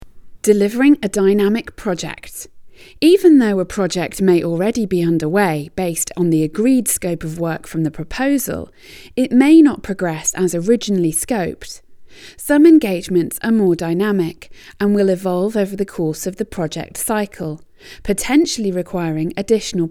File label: E Learning Examples